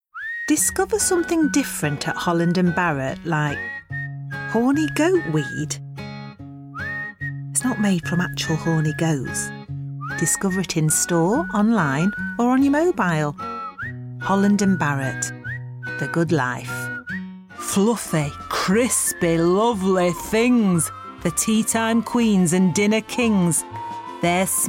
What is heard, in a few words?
Commercial Reel; Actress